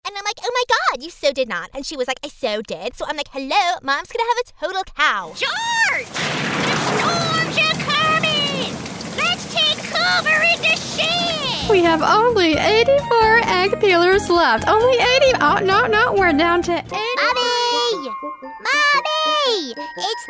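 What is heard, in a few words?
character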